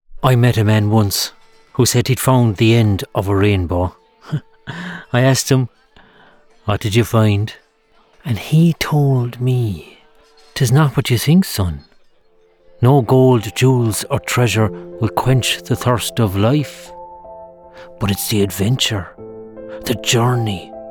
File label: Ginger Ale Commercial; Venetian